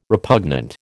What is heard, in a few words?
"repugnant", American